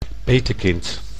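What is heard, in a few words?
"petekind", Dutch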